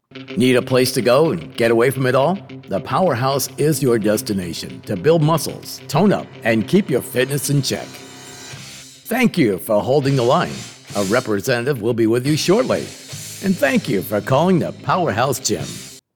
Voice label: GYM ON HOLD; POWERHOUSE